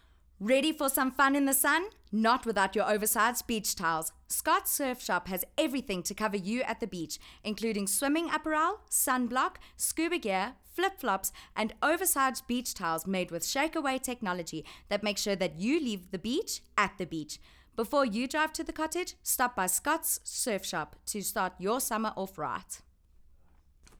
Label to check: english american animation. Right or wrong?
Wrong